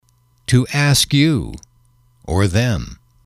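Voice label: to ask you them, English, male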